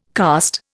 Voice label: "karst", Indian